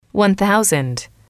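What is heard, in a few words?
"one thousand", English, female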